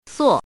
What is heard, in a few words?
"suo4", chinese voice